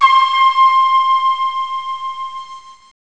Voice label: chinese